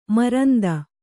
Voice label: "ಮರಂದ", Kannada

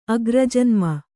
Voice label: "ಅಗ್ರಜನ್ಮ", Kannada

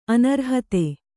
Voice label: "ಅನರ್ಹತೆ", Kannada

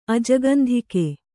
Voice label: "ಅಜಗಂಧಿಕೆ", Kannada; ajagandhike; noun

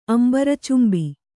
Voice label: "ಅಂಬರಚುಂಬಿ", Kannada

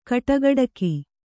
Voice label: "ಕಟುಗೊಡಗಿ", Kannada

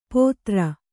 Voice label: "ಪೊದರ್", Kannada

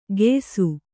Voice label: "ಗೆಯ್ಸು", kannada

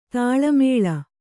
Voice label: "ತಾಳಮೇಳ", Kannada

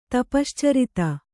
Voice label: "ತಪಶ್ಚರಿತ", Kannada

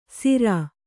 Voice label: "ಸಿರ", Kannada

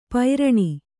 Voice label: "ಪೈರಣಿ", Kannada

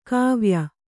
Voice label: "ಗವಯ", Kannada

